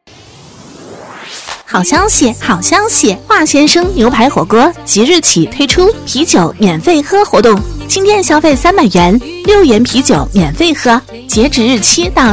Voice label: 女 促销 牛排火锅; 化先生牛排火锅

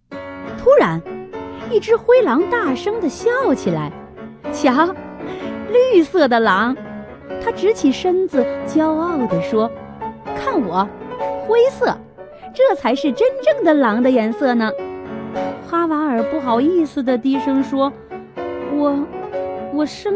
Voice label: 儿童文学 激情活力

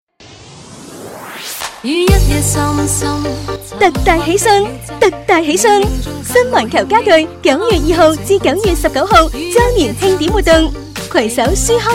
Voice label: 女 粤语促销 家具; 新环球家具

